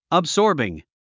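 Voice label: "absorbing", English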